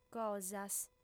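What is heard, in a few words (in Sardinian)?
"cosas", female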